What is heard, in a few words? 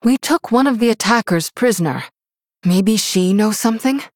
Female Human Victory; Jaina; Valeera